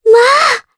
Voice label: vox, jp; Ophelia; kingsraid

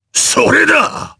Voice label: Vox, jp; Phillop; kingsraid

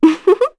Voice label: Happy, kr; Veronica; kingsraid; voices heroes

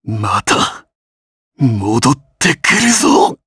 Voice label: Dead, jp; Shakmeh; kings raid

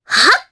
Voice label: Vox Casting, jp; Requina; kingsraid

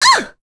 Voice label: Vox Damage, en; Miruru; kingsraid

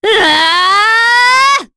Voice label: Maria Vox Casting, voices heroes en; kingsraid-audio

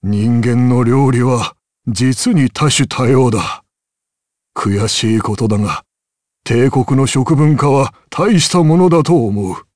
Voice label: vox dia jp; Dakaris; kingsraid

